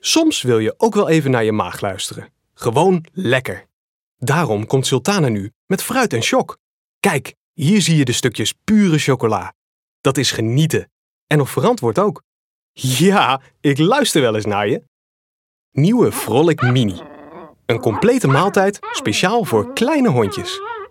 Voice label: commercial; sultana